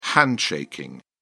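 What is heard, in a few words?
"handshaking", British English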